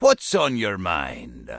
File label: Dwarf Male Standard Greeting; NPC